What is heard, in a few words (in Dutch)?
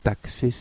"taxi", oudgrieks